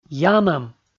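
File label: "ямӧм", Komi, male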